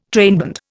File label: "trainband", Indian